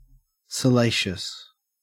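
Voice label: "salacious", Australian English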